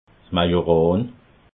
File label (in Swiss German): "majoran", alsace